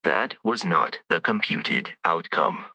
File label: upset; fertig classic games